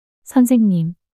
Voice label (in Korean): "선생님" 단어 여자